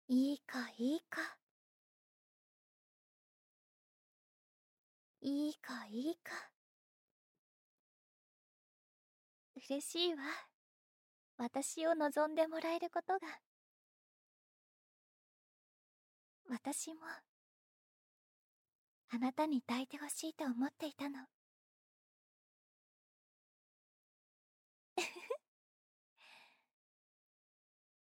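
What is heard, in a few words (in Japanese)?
オイルマッサージでリラックス（BGMなし）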